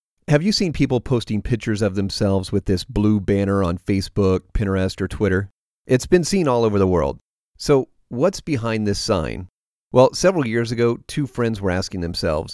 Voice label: Conversational; TravelClub